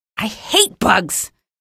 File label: alert antlions, female